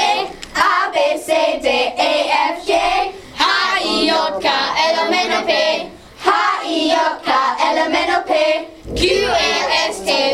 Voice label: german alphabet